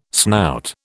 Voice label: "snout", British